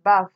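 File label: "baff", Nigerian Pidgin, female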